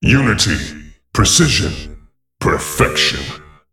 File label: Male Mech